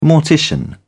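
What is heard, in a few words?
"mortician", British English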